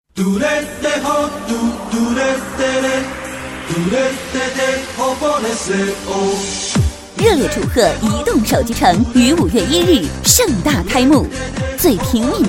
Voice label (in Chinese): 女 开业促销 移动手机城